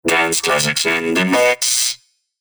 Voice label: RoboVoice - singing Robot